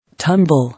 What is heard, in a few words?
"Tumble", English